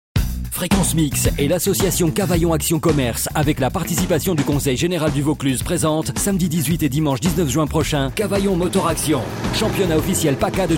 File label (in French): Voix Pour Publicité